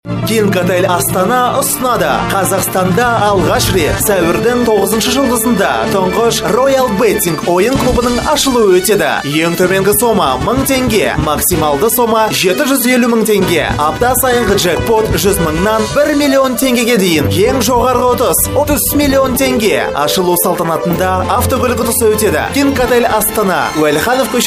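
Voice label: kazakh male commercial; voice demos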